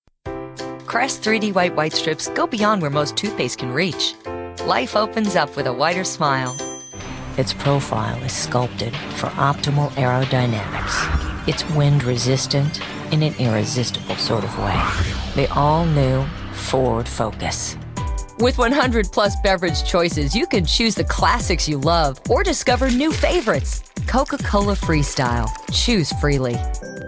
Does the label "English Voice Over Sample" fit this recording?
Yes